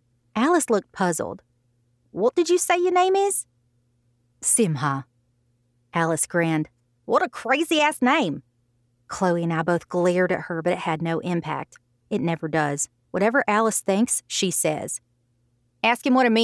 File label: Audiobook fiction